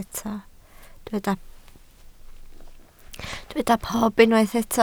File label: "pob", Welsh